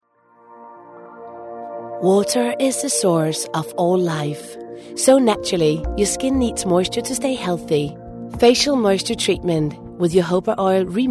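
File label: Danish, female, English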